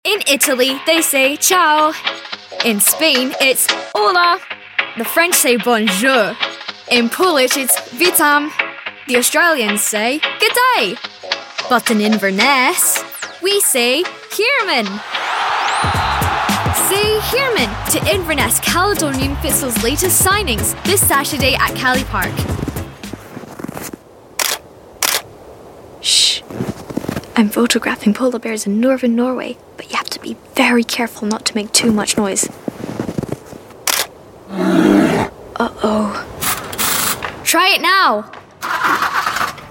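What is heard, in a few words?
Young Scottish Voiceover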